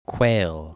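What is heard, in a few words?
"quail", English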